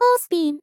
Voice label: "ospin", vocal; miku

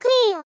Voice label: "half clear", vocal; Techmino; miku

